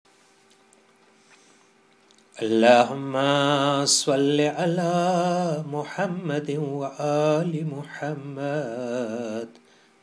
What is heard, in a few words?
Dua iftetah Gujrati